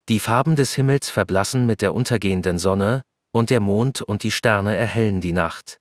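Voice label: German, Germany; iOS 26 Sounds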